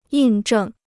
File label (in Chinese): "印证", Chinese